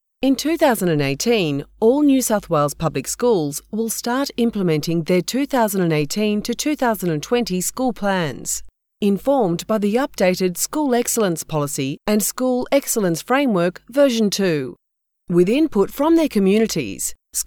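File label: Australian English, female, voice over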